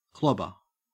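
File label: "clobber", Australian English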